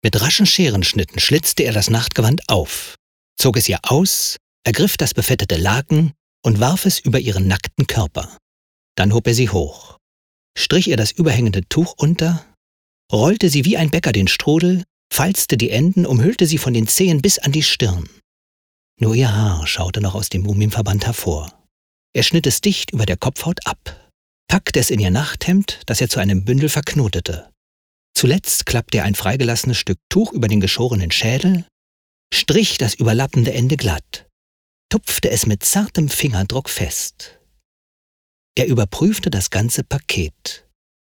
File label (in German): hoerbuch